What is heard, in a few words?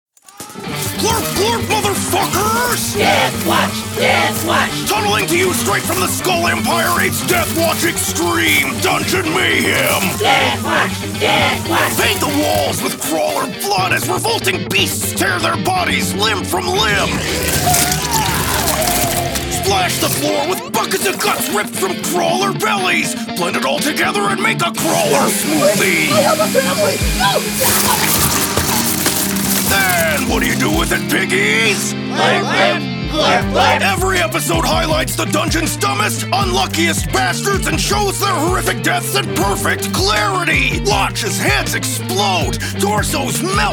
Commercial; Deathwatch; Dungeon Crawler Carl; Audio Imersion Tunnel Season 1